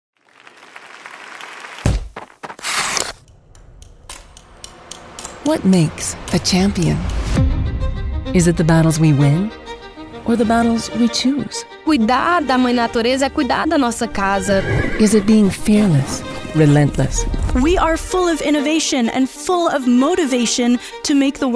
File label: english american documentaries